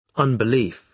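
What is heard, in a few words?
"unbelief", British English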